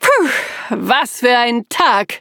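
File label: victory, German; cookie run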